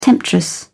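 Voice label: "temptress", British English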